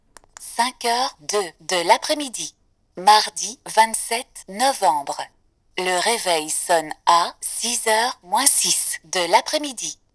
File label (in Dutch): F vrouw